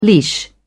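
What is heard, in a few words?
"leash", English, British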